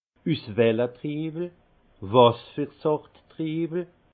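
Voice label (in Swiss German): "uswelletriiwel", Alsace Haut Rhin; vin; de quelle sorte de raisin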